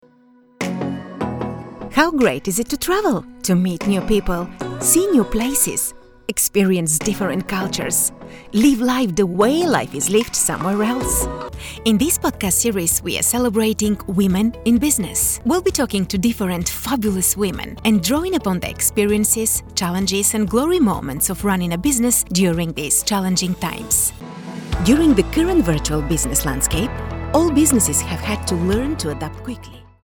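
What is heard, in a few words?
Bulgarian-English, female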